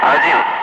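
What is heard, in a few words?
Russian speech, Ka-50 Wingman; Digits; DCS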